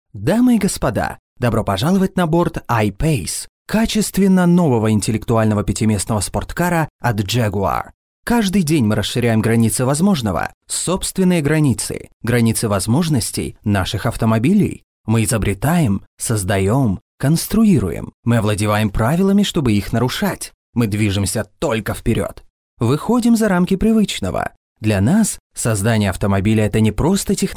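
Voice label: Russian, male, Commercial; jaguar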